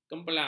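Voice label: "kempelang", Malay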